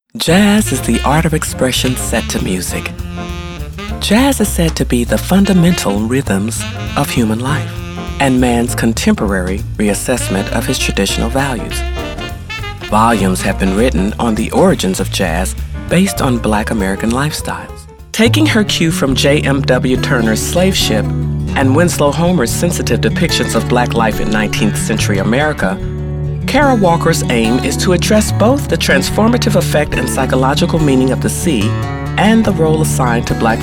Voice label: Narration